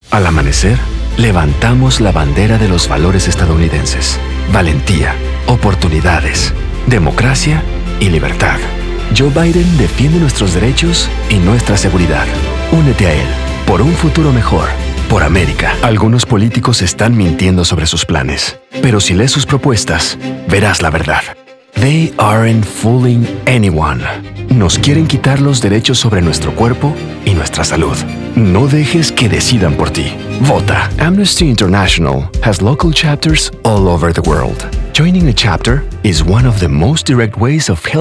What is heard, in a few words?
voiceover foreign language spanish; new york